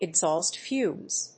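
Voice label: "exhaust fumes", English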